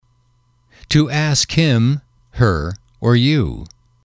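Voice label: to ask him her you, English, male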